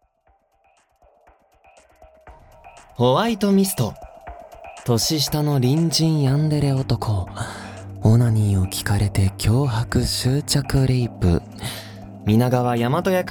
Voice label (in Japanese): 発売告知トーク; 年下の隣人ヤンデレ男～オナニーを聞かれて脅迫執着レイプ～